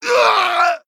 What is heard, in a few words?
Male Night Elf Death